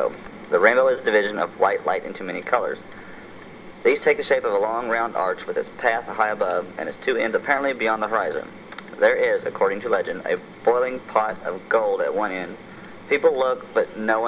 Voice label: missouri, dialects